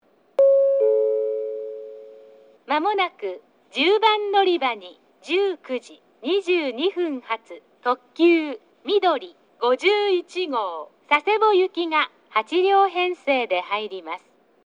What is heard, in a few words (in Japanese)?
10番のりば接近予告放送; みどり51号 佐世保行き; takeo onsen